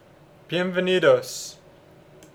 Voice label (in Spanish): Welcome, es